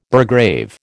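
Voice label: "burgrave", American English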